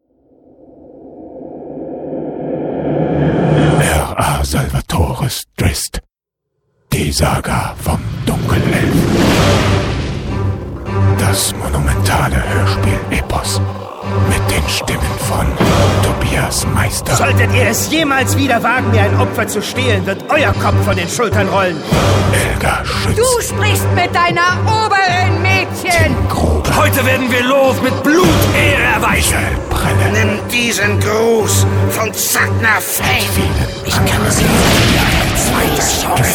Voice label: TRAILER; DRIZZT